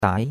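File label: "dai2", Chinese; Cours-de-Chinois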